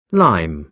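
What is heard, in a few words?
"lime", British English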